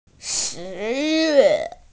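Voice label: "shi2yue4", Mandarin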